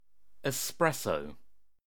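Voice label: "espresso", British English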